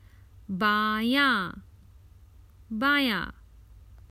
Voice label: Common Hindi Phrases for travel Left

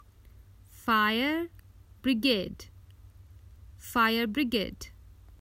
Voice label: The fire brigade, Common Hindi Phrases for travel

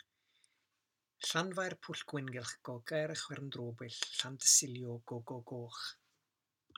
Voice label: Welsh How to pronounce Llanfair

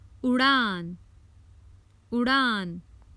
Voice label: Common Hindi Phrases for travel The flight

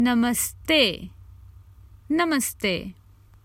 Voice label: Goodbye, Common Hindi Phrases for travel

